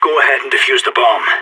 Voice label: waiting for human to defuse bomb, player vo sas